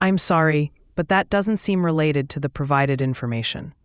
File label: question english